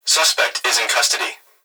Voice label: Emergency Human Young Male Voice Police Dispatch Suspect Is In Custody; emergency effects